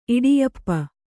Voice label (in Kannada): "ಇಡಿಯಪ್ಪ", Kannada; iḍiyappa; noun